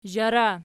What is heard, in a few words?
"zhara", Archi